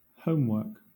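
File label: "homework", British English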